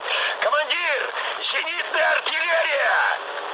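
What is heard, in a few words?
Russian damaged aa mood high, speech; War Thunder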